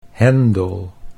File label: "hendel", Dutch